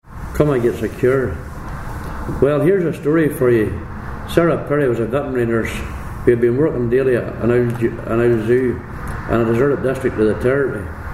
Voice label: passage, reading passage, Ulster Scots, Ballymoney, Co. Antrim